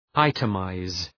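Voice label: "itemize", English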